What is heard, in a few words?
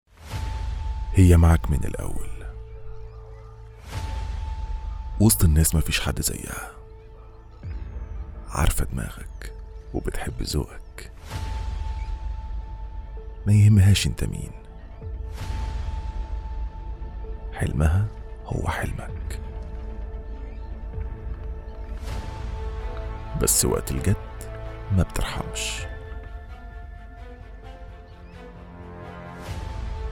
arabic promos